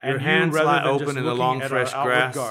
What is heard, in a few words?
mixed signal bad